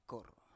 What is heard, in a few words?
"kor" to cut, Biak